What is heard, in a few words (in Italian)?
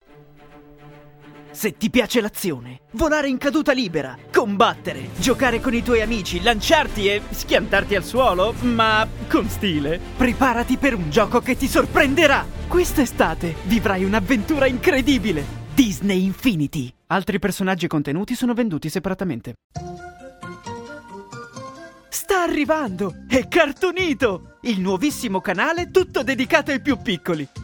sequenza spot